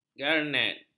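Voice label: "garnet", Malay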